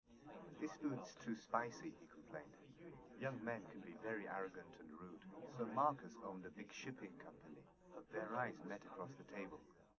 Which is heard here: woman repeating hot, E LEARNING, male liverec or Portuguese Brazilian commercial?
male liverec